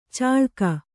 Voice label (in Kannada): "ಚಾಳ್ಕ", Kannada